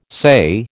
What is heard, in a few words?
"say", American